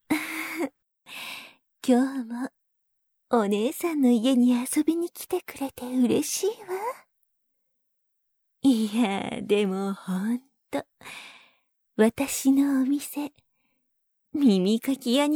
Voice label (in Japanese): オープニング